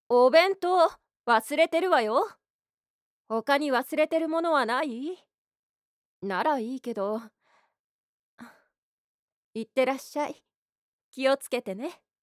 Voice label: Mom